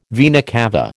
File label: "vena cava", American